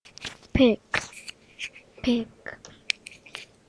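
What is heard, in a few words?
"pick", spoken word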